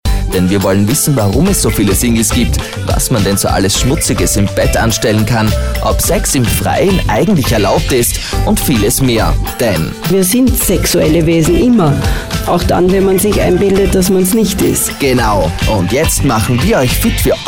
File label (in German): Sprecher Showreel